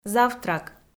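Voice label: "завтрак", Komi-Zyrian, female; audio dict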